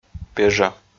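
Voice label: "пежа", Komi, male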